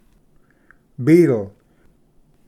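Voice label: "beetle", English; ᏍᎪᏯ ᎤᏯᏍᎦᏟ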